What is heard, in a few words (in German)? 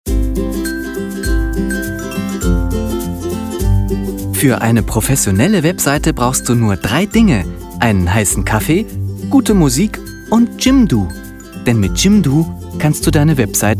Werbung Image Off Stimme